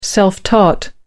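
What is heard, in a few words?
"self taught", British English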